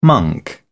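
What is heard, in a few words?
"monk", English, British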